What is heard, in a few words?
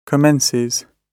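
"commences", British English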